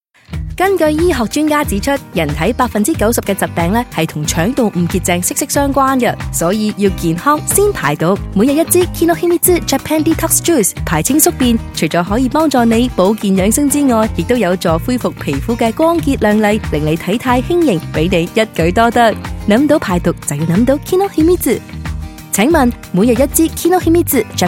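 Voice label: Cantonese Friendly Announcer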